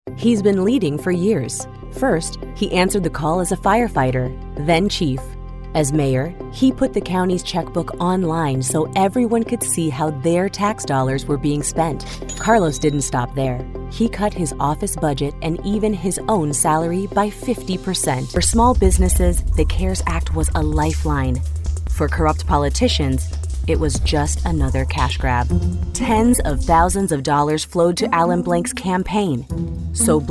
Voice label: Democrat Voiceover, Political Voices English

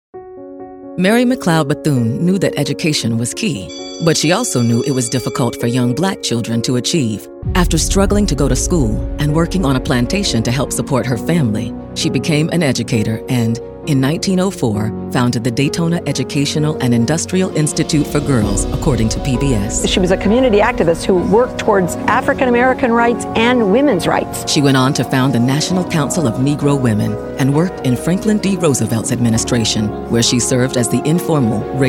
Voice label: Narration, Female Voice Over

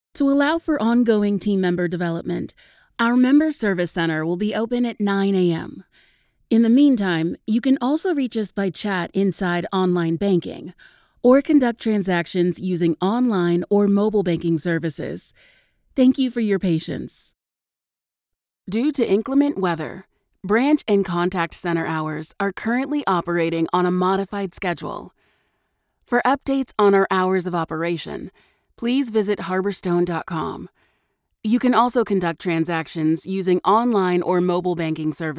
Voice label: IVR, Female Voice Over

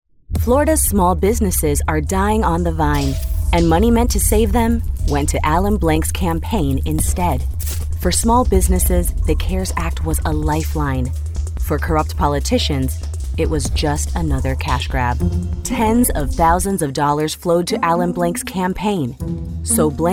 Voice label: GOP Voiceover, Political, English; Political GOP Voices English

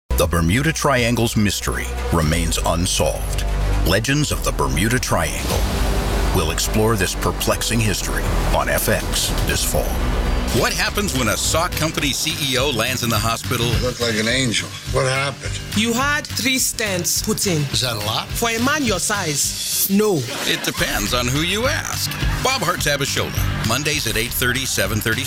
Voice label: Promo, Voice Over

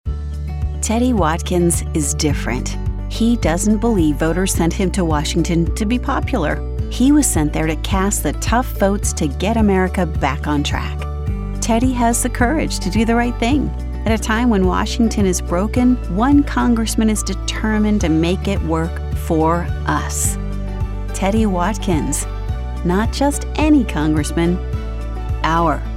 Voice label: GOP Voiceover, Political, English; Political GOP Voices English

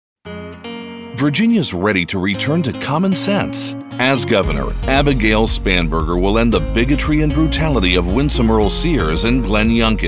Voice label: Democrat Voiceover, Political Voices English MALE

